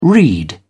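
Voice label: "reed", English, British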